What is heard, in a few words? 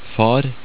"far", Norwegian; word of day